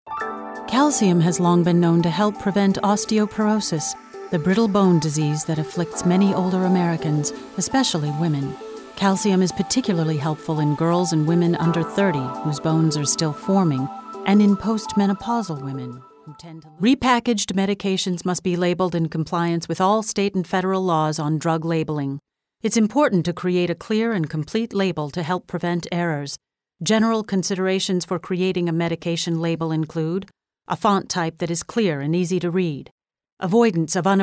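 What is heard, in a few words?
narration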